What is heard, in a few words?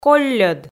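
"колльӧд", Komi, female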